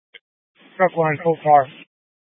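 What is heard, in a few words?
Incident Tac 1, Scanner audio recording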